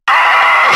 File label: hurt heavy; cloaker